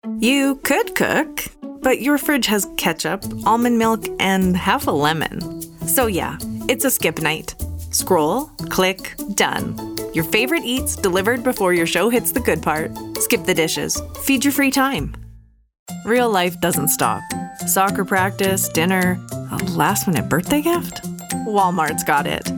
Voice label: Commercial